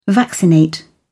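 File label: "vaccinate", British English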